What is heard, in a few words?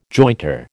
"jointer", American